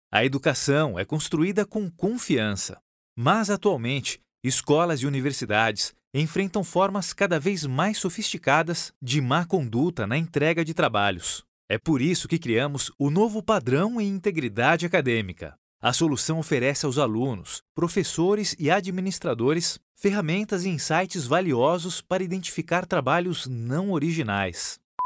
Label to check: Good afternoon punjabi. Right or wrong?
Wrong